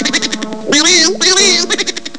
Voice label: low bye bye; Amiga Soundtracker Sample Packs